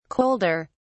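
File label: "colder", British English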